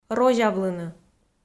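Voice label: "розявлыны", Komi, female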